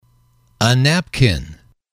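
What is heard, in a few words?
"napkin", English, male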